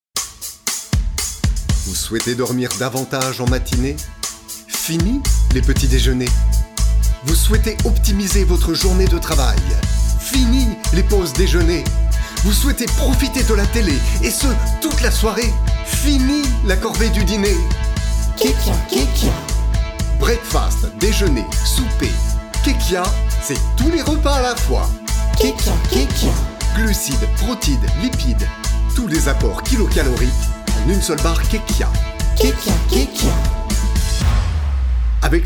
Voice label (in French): institutionnel; kekia